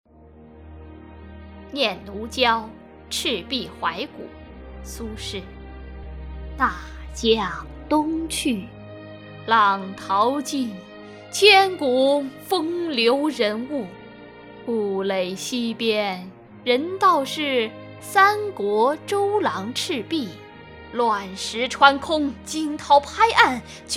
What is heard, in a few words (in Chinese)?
大气 浑厚 诗朗诵; 念奴娇